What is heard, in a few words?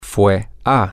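she went to, Spanish, male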